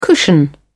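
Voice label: "cushion", British English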